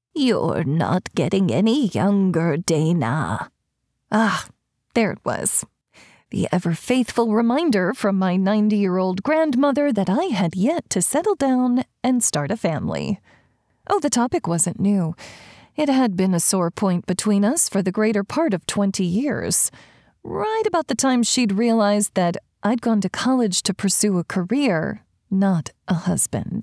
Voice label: Womens Fiction, 1st Person, Female / Female; Sarcastic response to Nona’s love life advice